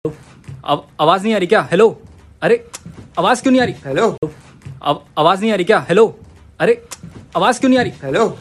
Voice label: Hello Hello Ringtone Male Voice